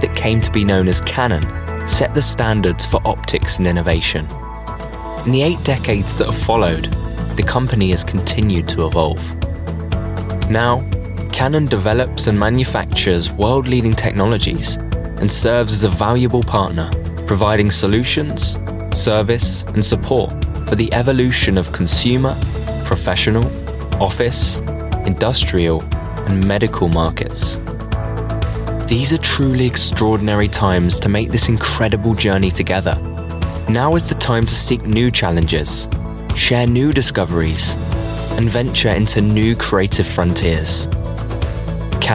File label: corporate